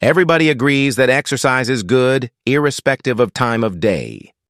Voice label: us male